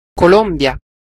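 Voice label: "colombia", Italian; gcompris-data; geography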